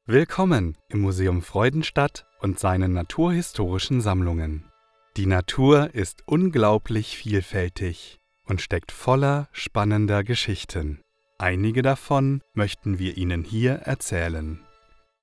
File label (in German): Audioguide, Voiceovers DE